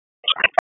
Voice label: Raleigh Police Southwest District Tac, p25

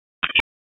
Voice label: Police Northeast District Tac 1, p25; Raleigh; wake

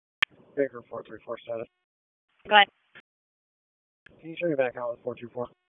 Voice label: Police Southeast District Disp, p25; Wake

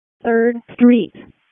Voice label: WC EMS ALERT, p25; wake p25

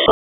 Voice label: FIRE OPS, p25; wake

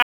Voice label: Police North District Tac 1, p25; Raleigh; wake

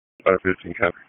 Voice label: FIRE OPS, p25; wake

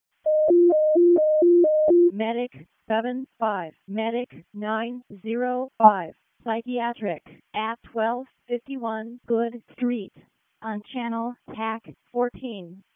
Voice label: EMS ALERT, p25

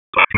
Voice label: PS TAC, p25; wake

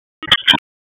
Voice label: Police Northwest District Tac 1; Raleigh; wake

